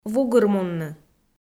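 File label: "вугырмунны", Komi, female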